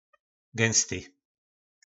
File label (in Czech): "Gynsty", nářečí Po našimu; Hustý